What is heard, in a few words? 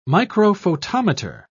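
"microphotometer", British English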